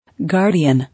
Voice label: "Guardian", English

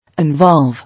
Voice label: "Involve", English

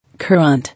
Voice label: "Current", English

